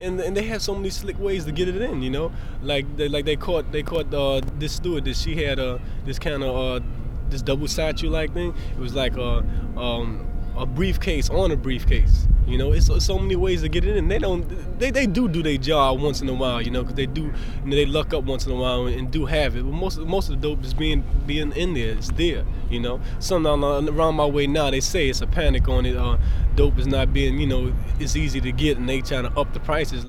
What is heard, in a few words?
New York interviews